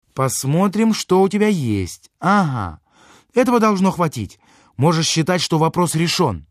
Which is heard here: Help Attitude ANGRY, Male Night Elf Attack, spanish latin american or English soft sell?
Help Attitude ANGRY